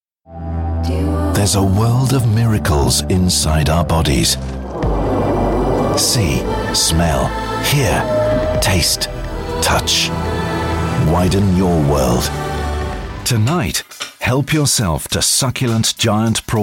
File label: radio tv commercials